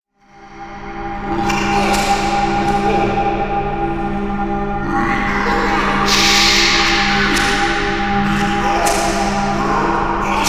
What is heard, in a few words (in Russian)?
inoplanetyane chuzherodnaya rech